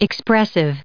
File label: "expressive", us